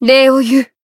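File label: Voice healed, Japanese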